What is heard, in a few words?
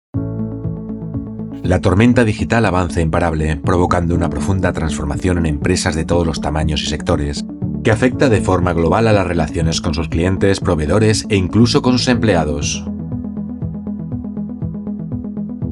spanish corporate videos